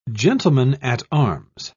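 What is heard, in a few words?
"gentleman-at-arms", British English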